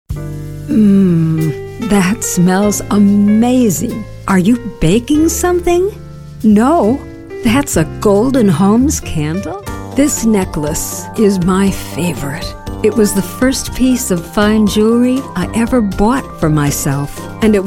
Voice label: Commercials; voice Over-Actor-Talent; Demo